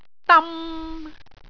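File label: "dam3", Cantonese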